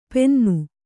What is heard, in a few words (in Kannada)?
"ಪೆನ್ನು", kannada